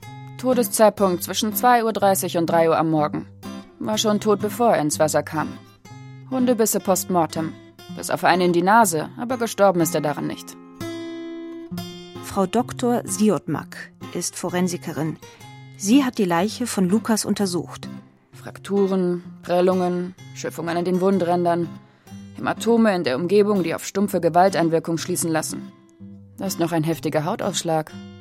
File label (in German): Hoerspiel; dradio; Wer Hat Lukas Gebissen